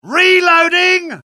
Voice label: reload, dialogue UK; mohaa